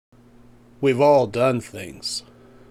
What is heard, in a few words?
Voiceover